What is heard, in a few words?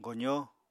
"gozó", Apatani